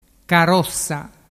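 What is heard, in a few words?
"carossa", Vernazzese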